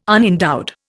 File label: "unendowed", Indian